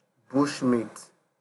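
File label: "bushmeat", Nigerian Pidgin, male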